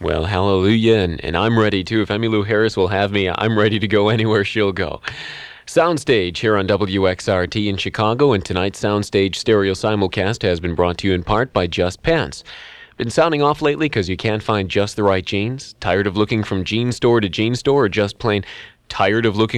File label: Commercials; Bluegrass; WXRT